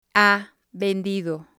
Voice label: "ha vendido", spanish

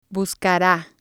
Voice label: "buscara", spanish

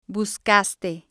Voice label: "buscaste", spanish

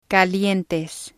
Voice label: "calientes", spanish

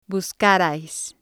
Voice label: "buscarais", spanish

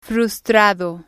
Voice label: "frustado", spanish

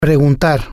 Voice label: "preguntar", spanish

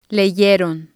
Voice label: "leyeron", spanish

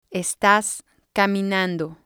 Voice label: "estas caminando", spanish

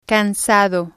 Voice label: "cansado", spanish

